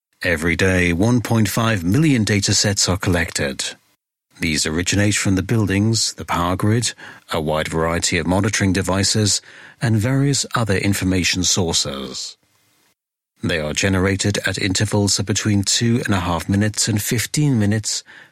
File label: imagefilm, english voiceovers